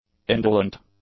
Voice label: "indolent", English